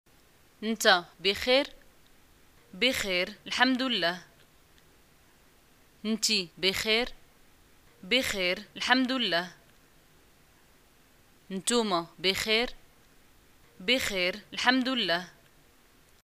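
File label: greeting how are you, Moroccan arabic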